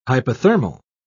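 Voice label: "hypothermal", British English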